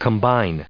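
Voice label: "combining", US English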